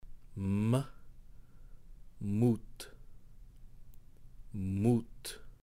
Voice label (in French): m mout